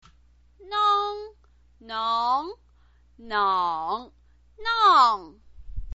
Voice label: "nang", chinese pinyin